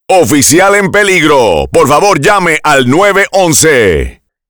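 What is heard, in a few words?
Officer in Distress, Spanish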